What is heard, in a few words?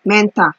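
"mental", Nigerian Pidgin, female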